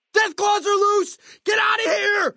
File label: male adult dialogue greeting; Fnv